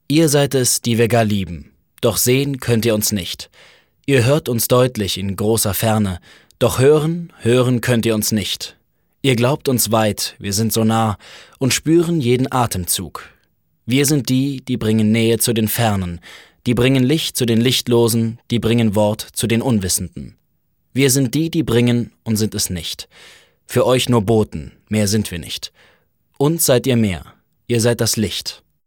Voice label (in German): sachlich, maenner